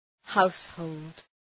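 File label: "household", English; {‘haʋshəʋld}; Ουσιαστικό; νοικοκυριό; σπιτικό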